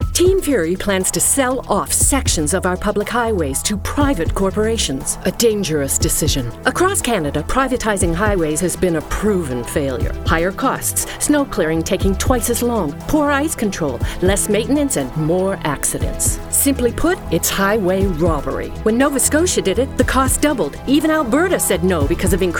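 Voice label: advert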